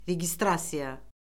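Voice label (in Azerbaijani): "registrasiya", Azerbaijani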